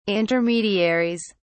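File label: "intermediaries", British English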